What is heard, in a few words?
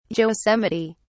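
"yosemite", British English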